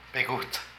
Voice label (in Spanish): Mezclar, Zapoteco de Petapa; Vocabulary